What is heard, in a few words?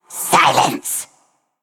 Female Undead; Valeera